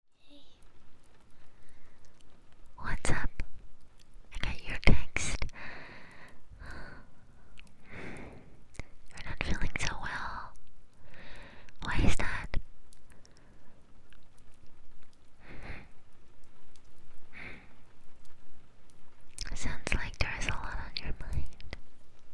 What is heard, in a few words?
ASMR Roleplay Comforting Sleeping Aid (WITH RAIN)